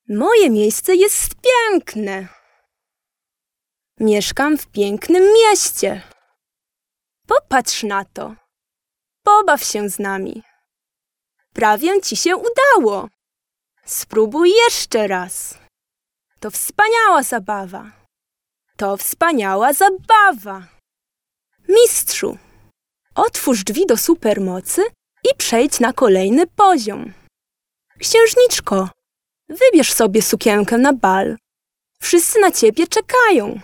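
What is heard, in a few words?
Polish female children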